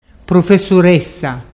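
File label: "prufesuressa", Ligurian dialect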